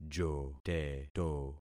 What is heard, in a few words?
"joteto", concat syllables; Affixing experiment